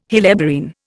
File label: "helleborine", Indian